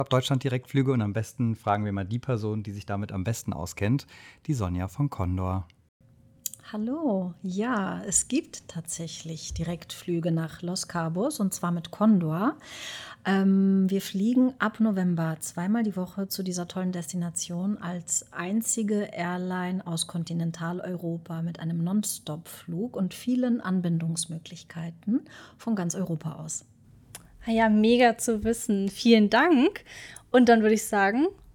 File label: Brand Read